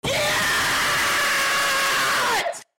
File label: gyaaaaaaaaat bass boosted